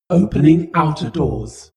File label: space shuttle female status report